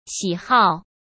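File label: "喜好", Mandarin Chinese; chinese flashcards; hsk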